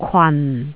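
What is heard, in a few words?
"kwan4", Jyutping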